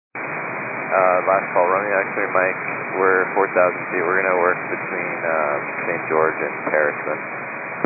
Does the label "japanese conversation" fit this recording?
No